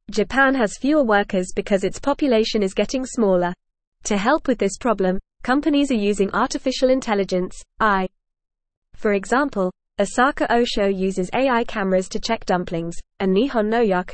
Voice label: English Lower Intermediate FAST Reading; Japan Uses Smart Computers to Help with Work